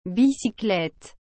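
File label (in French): "bicyclette", French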